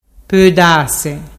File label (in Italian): podase, dialettu